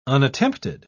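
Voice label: "unattempted", British English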